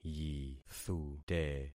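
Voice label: "yithute", concatenated syllables; Affixing experiment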